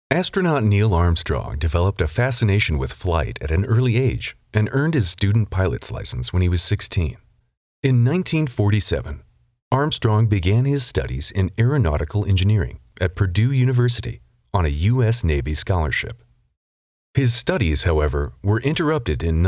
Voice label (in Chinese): 美 外籍英男 美国宇航局介绍 磁性讲述; NASA